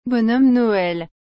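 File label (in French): "bonhomme Noël", French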